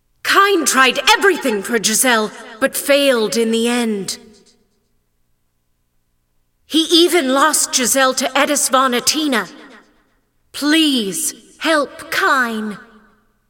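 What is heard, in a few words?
cinematic voice, Spanish; lineage2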